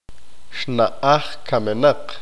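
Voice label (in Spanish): panteón tumba nicho, Spanish, male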